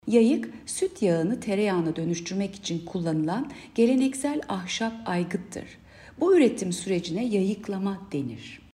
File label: turkce